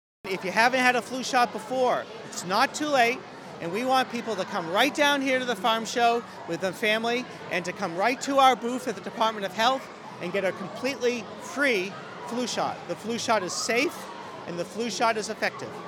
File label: Farmshow Flu Shot radio; DOH